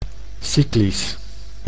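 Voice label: "cyclisch", Dutch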